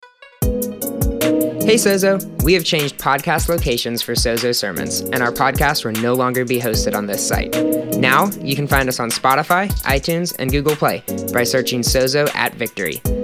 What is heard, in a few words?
Podcast Announcement